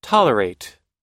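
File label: "tolerate", American English; tolerate /tɑ́:ləreit; (他動詞) (…を)大目に見る、黙って許す、寛大に取り扱う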